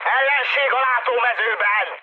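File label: Hungarian enemy detected mood high, speech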